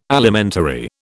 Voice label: "Alimentary", British